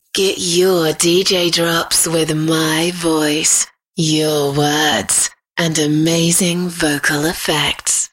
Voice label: Sexy